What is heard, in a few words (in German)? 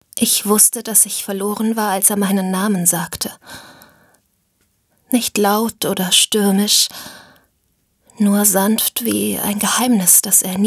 Romance, Hörbücher; Stimmen Weiblich